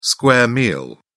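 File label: "square meal", British English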